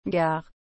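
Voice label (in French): "gare", French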